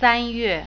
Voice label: march, mandarin